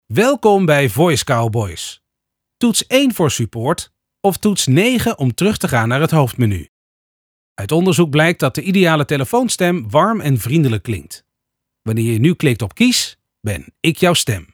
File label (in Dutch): voicemail